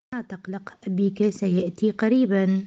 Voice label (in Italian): Arabo